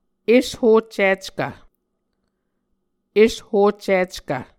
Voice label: "eshoccickv", Muscogee; Mvskoke Words with Repeated Consonants; Onvkv Ohhvyayices; A Dictionary of Creek/Muskogee; SIL International